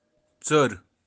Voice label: "tsar", Kashmiri